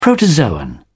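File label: "protozoan", British English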